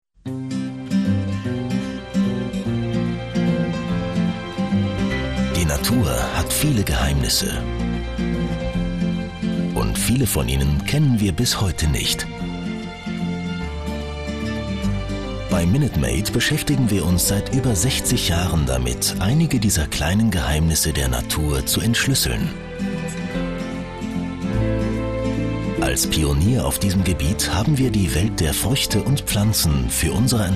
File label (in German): produktfilm; fruitopia